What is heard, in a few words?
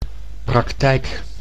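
"praktijk", Dutch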